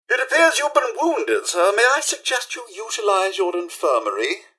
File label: robots dialog hello; mrhandy; npc